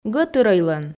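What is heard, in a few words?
"гӧтырӧйлӧн", Komi, female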